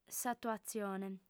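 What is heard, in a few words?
"s'atuatzione", Sardinian female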